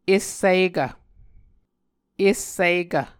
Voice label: "essikv", Muscogee